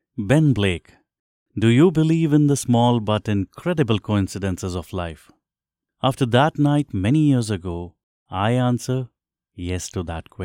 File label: english indian podcasts